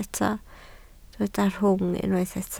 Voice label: "rhwng", Welsh